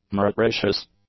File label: "meretricious", english; adjectives